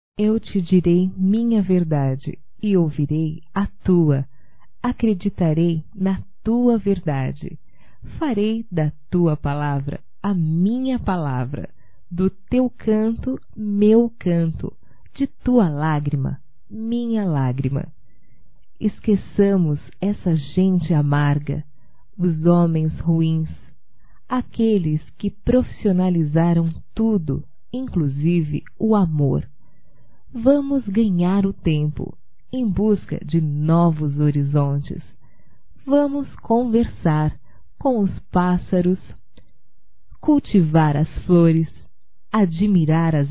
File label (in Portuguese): PARA SEMPRE - F; Românticas